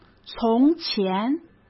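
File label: "从前" Mandarin Chinese; hsk; chinese-flashcards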